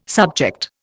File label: "subject", Indian